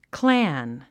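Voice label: "clan", English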